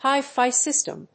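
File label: "hi-fi system", English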